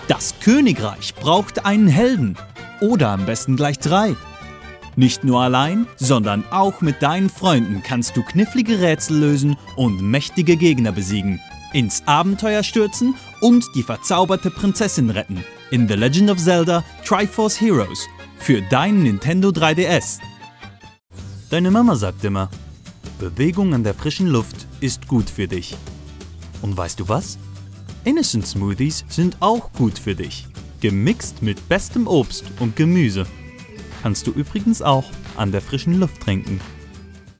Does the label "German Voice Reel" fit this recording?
Yes